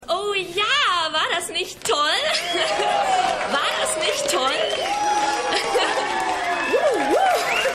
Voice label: Junge Frau; Stimmproben

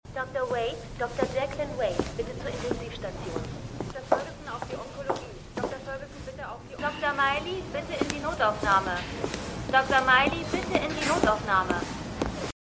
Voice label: Krankenhaus Durchsage; City On A Hill

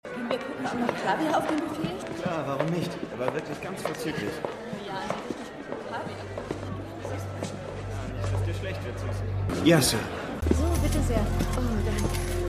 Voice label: Frau Mann Barmann Barfrau Frau Spielautomat; Goliath

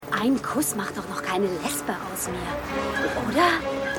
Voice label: Frau In Park, Stimmproben; Was Frauen wollen

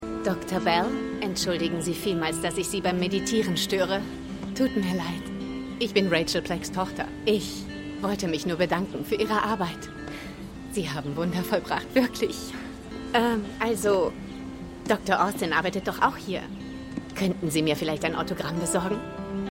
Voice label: Dankbare Frau; AtlantaMedical

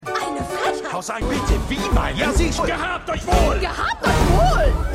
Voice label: Pub Besucher F1 M1 M2 F2; Spirited

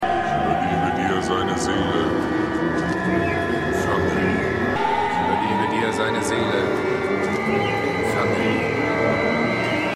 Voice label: Tiefe Stimme, Stimmproben; XFactor

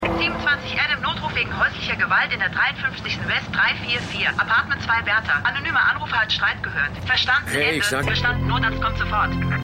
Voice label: Funkstimme

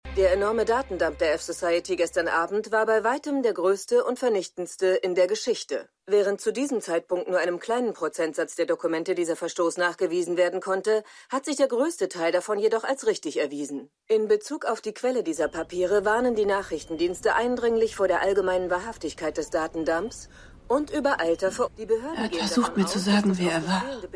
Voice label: Nachrichtensprecherin

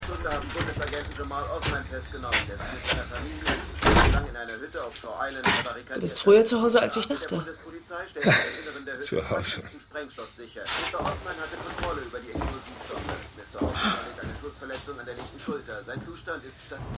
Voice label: TV Sprecher; The Wire

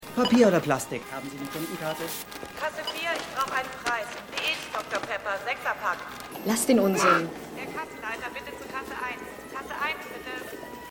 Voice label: Kassierer Kundin Durchsage Supermarktmutter; Eve Und Der Letzte Gentleman

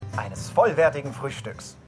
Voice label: Werbesprecher; For All Mankind

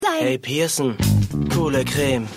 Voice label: Cooler Achtklaessler; Pepper Ann

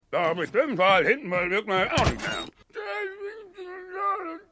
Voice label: Murmler

